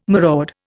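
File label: "maraud", Indian English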